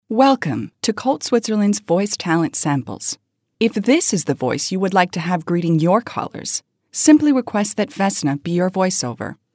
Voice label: ivr, english USA